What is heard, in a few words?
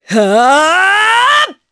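Vox Casting, Japanese; Morrah; kings raid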